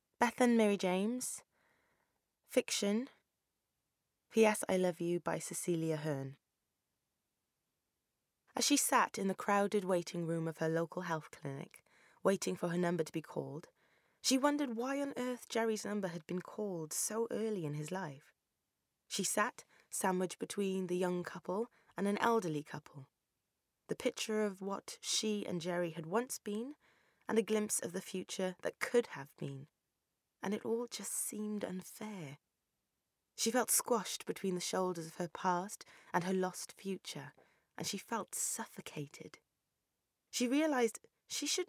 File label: Narrative; Voice Reel